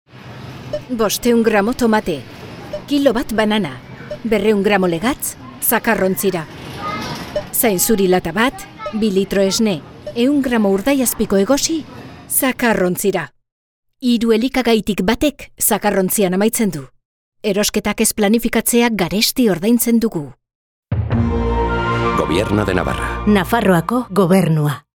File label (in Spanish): Euskera Espana Femenina Adulta